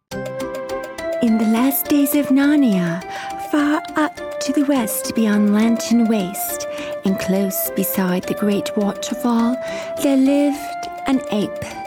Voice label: anim demo, Character/Animation, Voice Actress; Voice Demos